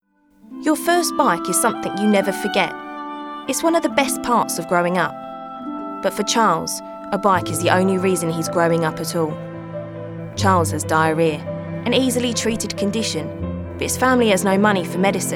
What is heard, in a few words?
Southern London accent ad; Oxfam